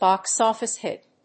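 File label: "box-office hit", English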